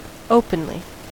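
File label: "openly", American English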